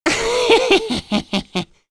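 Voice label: Vox Happy, English; Lakrak; kingsraid; voices heroes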